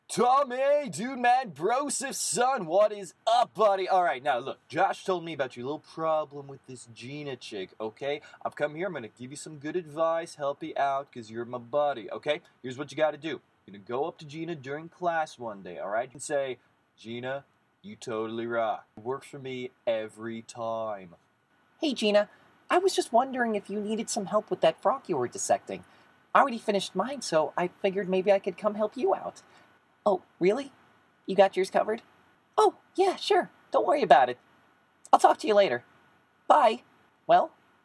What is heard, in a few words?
Character